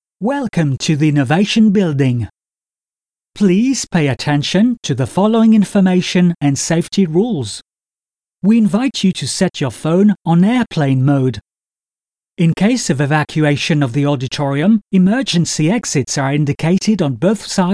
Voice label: Message securite immeuble

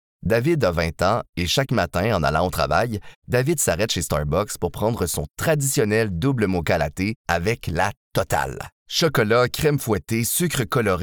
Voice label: Livre audio; French (Canadian)

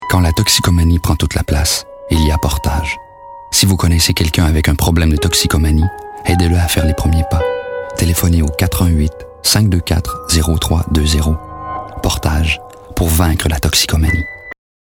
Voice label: voix portage

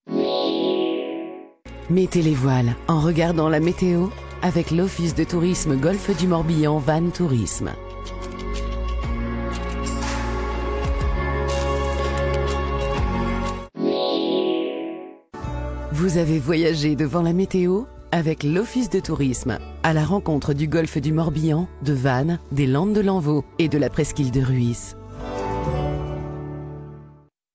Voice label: Meteo TV

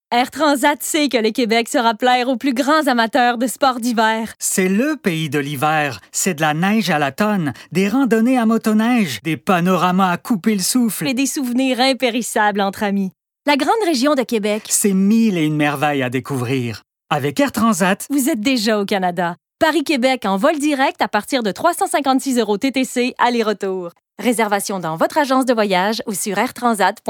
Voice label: FR CAN PUB radio nationales en duo; AIR TRANSAT; Amateurs de sports d hiv

